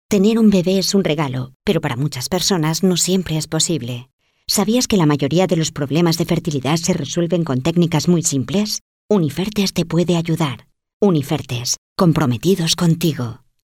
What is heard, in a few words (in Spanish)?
SPOT TV; Unifertes